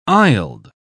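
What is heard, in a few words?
"aisled", British English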